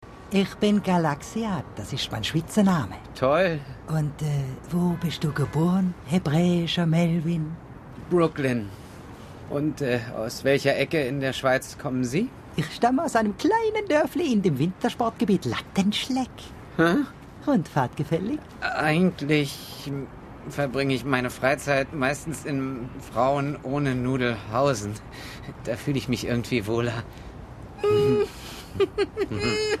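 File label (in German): Wutprobe schwiizerduetsch, Dialekt, Männer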